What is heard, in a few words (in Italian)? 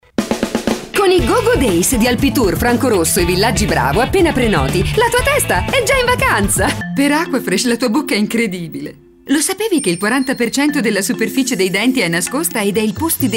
spot nazionali